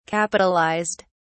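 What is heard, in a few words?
"capitalised", British English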